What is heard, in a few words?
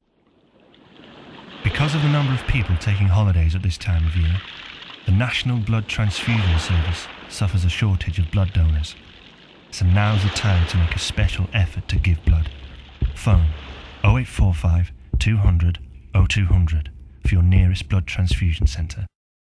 Natural, Intimate, Comforting; Give Blood